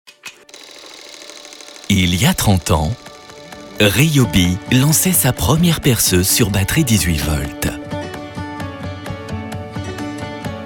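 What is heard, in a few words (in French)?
institutionnel, voix off, homme; Ryobi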